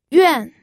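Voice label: "yuan4", Mandarin Chinese pinyin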